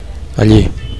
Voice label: "alli", Catalan; there; over there

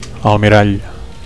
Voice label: "almirall", Catalan; (m) admiral

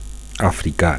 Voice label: "africa", Catalan; African; (m / f) African

